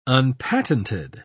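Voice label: "unpatented", British English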